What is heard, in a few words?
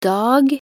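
"dag", Norwegian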